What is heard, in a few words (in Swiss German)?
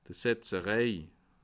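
"setzereil", Bas Rhin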